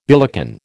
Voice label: "billycan", American

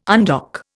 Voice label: "undock", Indian

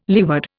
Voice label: "leeward", Indian

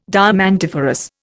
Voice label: "diamantiferous", Indian

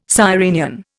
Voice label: "sirenian", Indian

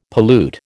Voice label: "pollute", American

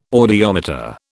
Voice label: "audiometer", British

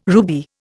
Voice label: "ruby", Indian

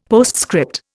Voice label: "postscript", Indian; Dictionary

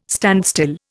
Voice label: "standstill", Indian

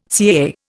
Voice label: "CAA", Indian

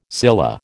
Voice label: "scilla", American

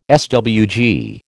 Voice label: "SWG", American

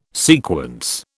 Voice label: "sequence", British

